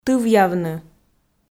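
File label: "тывъявны", Komi, female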